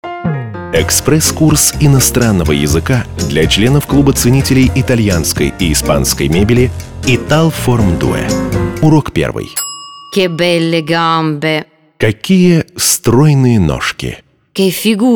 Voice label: Italian; ITALFORMDUE